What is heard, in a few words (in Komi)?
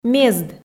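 "мезд", Komi, female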